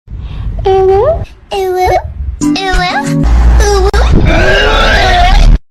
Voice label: Girls Uwu VS Boys Uwu